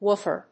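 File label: "woofer", English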